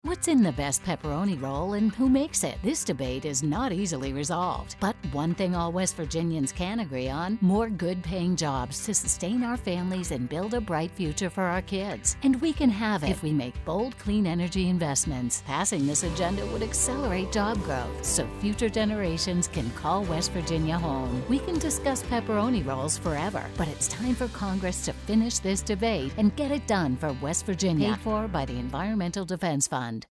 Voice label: radio Ad; blenker